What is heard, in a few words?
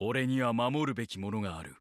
win, JP; ike; SSBU